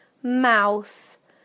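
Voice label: "mouth", British English; PictureDictionary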